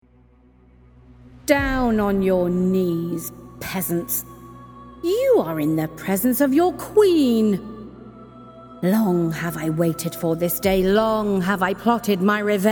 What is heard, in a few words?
Animation